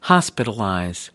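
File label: "hospitalize", American English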